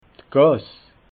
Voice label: "gass", alsace

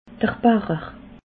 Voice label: "bacher", alsace

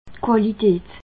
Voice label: "qualitat", alsace

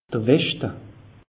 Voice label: "weschte", alsace; meteo

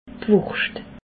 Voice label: "wurscht", alsace

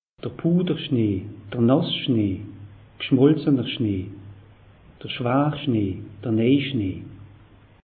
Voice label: "puder", alsace; meteo

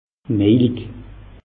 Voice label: "mejlig", alsace; meteo

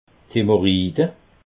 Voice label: "hemorride", alsace

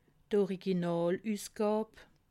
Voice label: "originalusgab", alsace

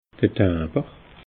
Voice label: "timber", alsace

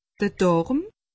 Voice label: "darm", alsace; medecine

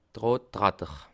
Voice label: "tratter", alsace

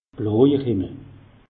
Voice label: "bloijer", alsace; meteo

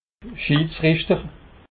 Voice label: "schidsrichter", alsace

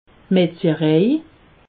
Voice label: "metzjerej", alsace

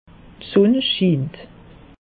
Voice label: "sunn schiint", alsace; meteo

